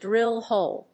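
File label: "drillhole", English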